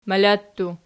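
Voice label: "mala" plural, Archi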